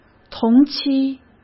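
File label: "同期", Mandarin Chinese; hsk; chinese flashcards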